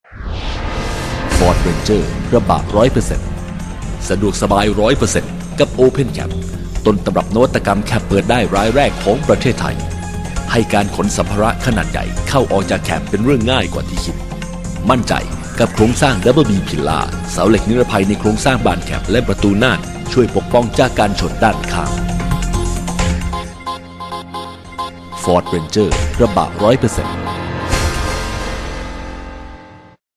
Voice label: Thai M; Ford Ranger